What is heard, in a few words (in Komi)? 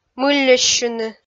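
"мылльӧссьыны", Komi, female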